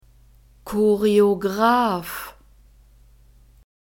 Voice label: "Choreograph", German; masculine

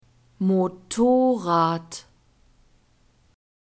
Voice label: "motorrad", German; nouns gender feminine

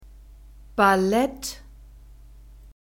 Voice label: "Ballett", German

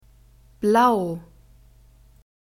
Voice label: "blau", German; alphabet

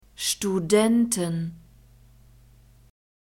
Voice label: "studenten", German

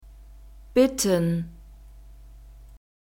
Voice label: "bitten", German; verbs present tense regular

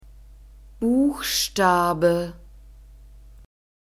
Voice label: "buchstabe", German; neuter

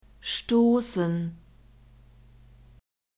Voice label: "stossen", German; verbs present tense irregular

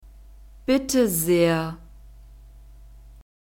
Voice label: bitte sehr, German

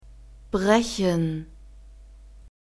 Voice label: "brechen", German; verbs present tense irregular

